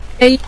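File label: "bei", Mandarin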